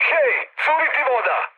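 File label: Serbian damaged water mood high; speech; War Thunder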